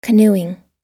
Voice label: "canoeing", us